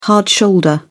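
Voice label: "hard shoulder", British English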